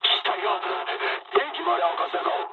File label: damaged water mood med, speech jp; War Thunder